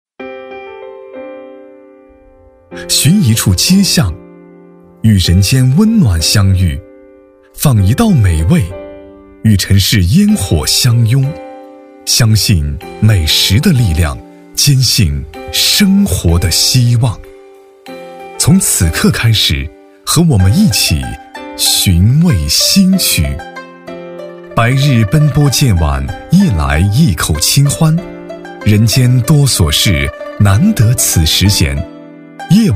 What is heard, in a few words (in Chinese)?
男 纪录片 舌尖类; 寻一处街巷